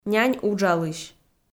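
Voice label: "нянь уджалысь" Komi-Zyrian, female; kpv audio dict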